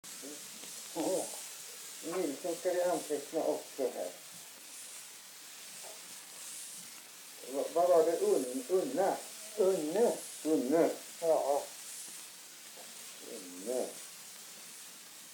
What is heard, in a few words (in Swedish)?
dialekter; Folkminnen; Svenska sagor